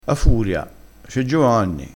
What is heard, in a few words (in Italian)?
"ha furia", crespinese, vocabolario dialettale